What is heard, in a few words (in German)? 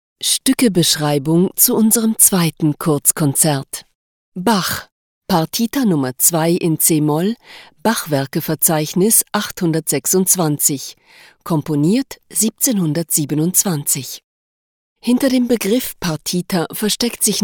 Musikbeschreibung DE